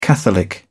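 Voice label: "catholic", British English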